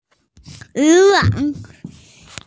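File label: "yu wang" Chinese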